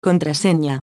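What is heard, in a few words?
Catalan Password; AXEFIAudio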